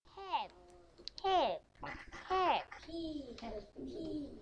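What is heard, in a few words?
"hip", spoken word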